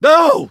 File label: hurt voice; 巴斯光年